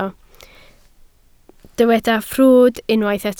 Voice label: "ffrwd", Welsh

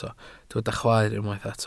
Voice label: "chwaer", Welsh

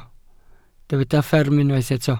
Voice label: "fferm" Welsh

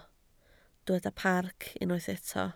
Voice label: "parc", Welsh

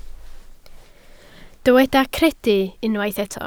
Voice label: "credu", Welsh

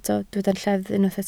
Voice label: "lleddf" Welsh

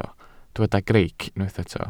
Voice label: "greic", Welsh

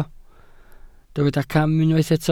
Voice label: "cam", Welsh

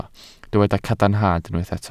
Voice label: "cadarnhad", Welsh

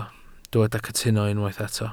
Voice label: "cytuno", Welsh

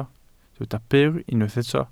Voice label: "byr", Welsh

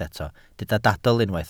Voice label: "dadl" Welsh

